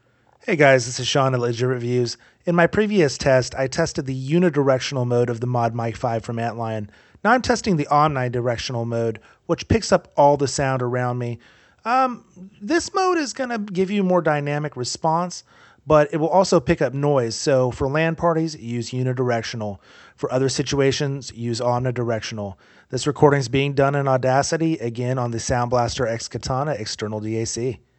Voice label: omnidirectional; modmic 5